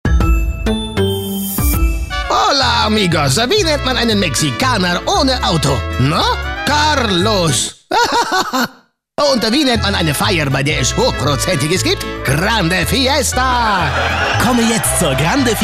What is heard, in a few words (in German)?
Funkspot; Mexikaner